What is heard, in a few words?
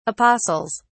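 "apostles", British English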